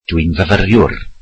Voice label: welsh Im a student